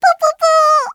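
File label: story, Japanese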